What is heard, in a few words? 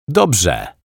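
"Dobrze", Polish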